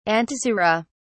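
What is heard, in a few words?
"antisera", British English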